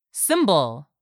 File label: "symbol", english; 3000 words